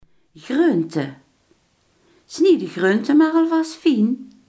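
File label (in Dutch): groente, Limburgse gesproken woordenboeken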